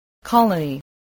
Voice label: "colony", voice

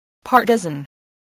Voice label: "partisan", English, voice

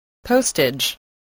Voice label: "postage", English

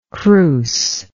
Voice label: "crousely", english

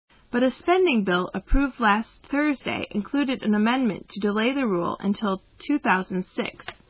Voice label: M

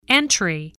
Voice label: "entry", voice, english

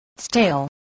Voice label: "stale", voice

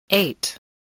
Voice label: "eight", English

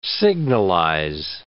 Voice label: "signalize", words

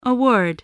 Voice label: "award", english

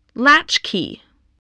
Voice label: "latchkey", English

